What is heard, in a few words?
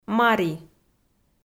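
"мари", Komi, female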